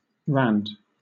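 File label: "rand", British English; pronunciations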